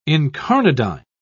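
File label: "incarnadine", British English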